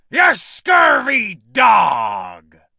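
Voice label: taunt pirate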